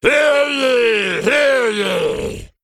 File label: Male Worgen